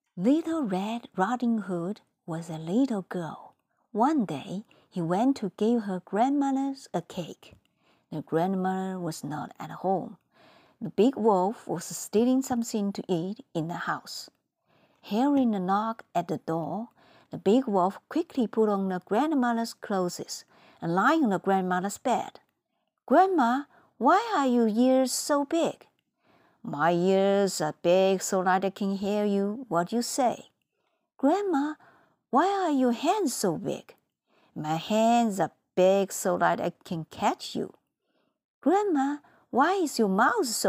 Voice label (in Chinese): 小红帽英语配音